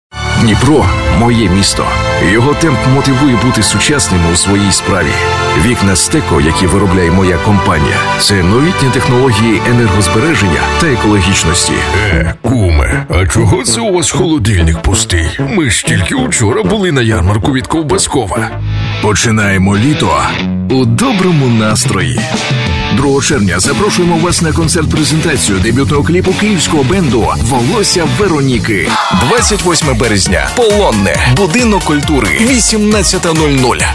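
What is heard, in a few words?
Ukrainian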